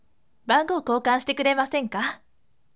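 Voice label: voice, firm; bangou koukan site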